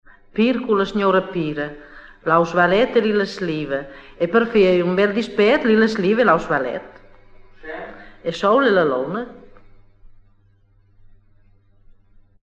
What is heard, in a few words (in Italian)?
indovinello, romagna